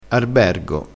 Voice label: "arbergo", crespinese

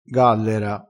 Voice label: "gallera", crespinese

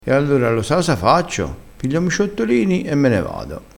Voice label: "ciottolini", crespinese

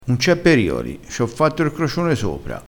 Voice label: "facci r crocione", dialettale crespinese

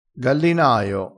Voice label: "gallinaio", crespinese

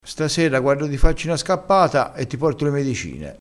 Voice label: "scappata", dialettale

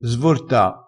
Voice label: "svorta", crespinese

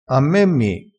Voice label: "a me mi", Crespinese

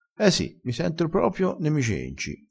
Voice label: "sentissi cenci", dialettale

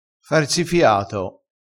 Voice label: "farsifiato", vocabolario dialettale

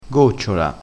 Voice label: "gocciola", crespinese

